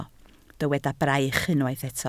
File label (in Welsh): "braich", Welsh